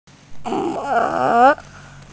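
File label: "ma3", Mandarin Chinese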